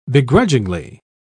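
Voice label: "beguilingly", en, uk British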